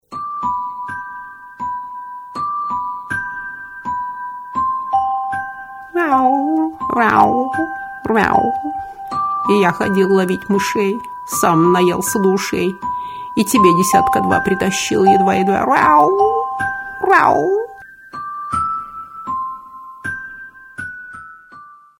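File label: cat voice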